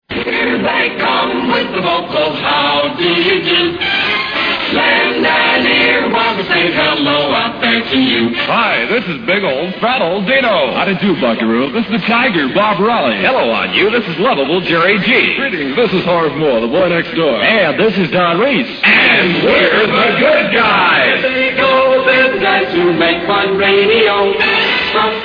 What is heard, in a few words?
sweeper jock roll call